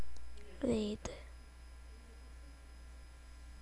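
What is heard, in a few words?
"lead", spoken word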